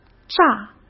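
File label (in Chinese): "炸" Mandarin Chinese; hsk; chinese-flashcards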